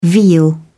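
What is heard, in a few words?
"veal", British English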